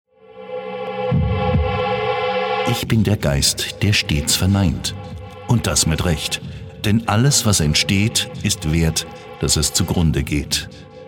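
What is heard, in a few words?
German movie trailer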